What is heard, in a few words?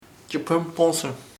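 eagle Aguila, Chamacoco word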